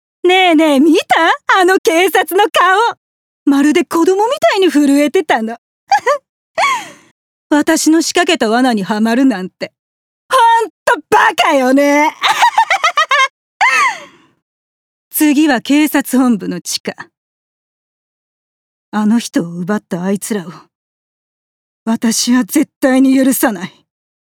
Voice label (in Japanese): 30代サイコパス